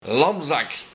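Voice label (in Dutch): "Lamzak", Luik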